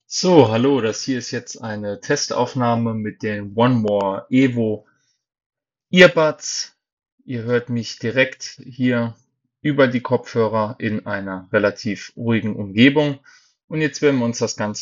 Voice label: soundqualität mikrofon; 1more evo

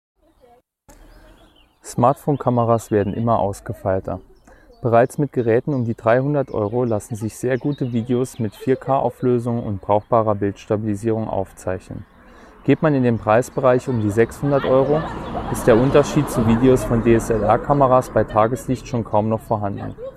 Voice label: laut lav; saramonic blink 500 test

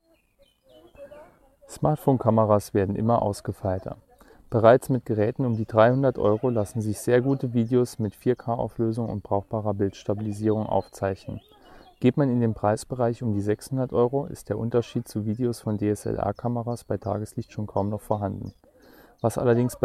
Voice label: laut intern; saramonic blink 500 test

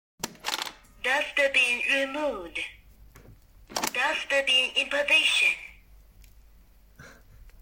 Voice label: Puppyoo Sprachausgabe; puppyoo r6

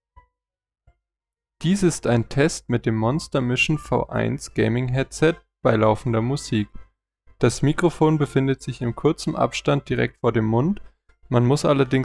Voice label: Test Sample mit Musik; monster mission v1 gaming headset

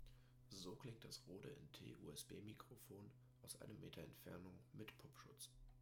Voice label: Rode 1m mit Popschutz

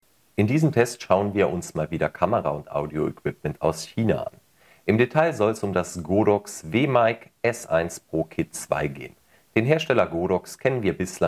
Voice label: ohne Hintergrundgeraeusche; godox wmic s1 pro kit 2 uhf funkmikrofone

